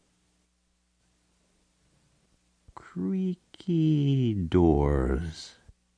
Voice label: creaky doors, zany sfx announcer